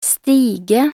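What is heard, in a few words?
"stige", Norwegian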